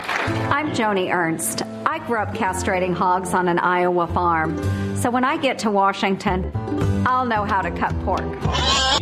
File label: castration commercial; joni ernst